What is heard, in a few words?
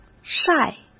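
"晒", Mandarin Chinese; chinese-flashcards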